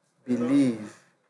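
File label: "believe", Nigerian Pidgin, male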